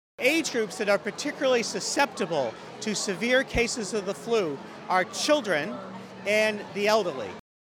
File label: Farmshow Flu Shot radio; DOH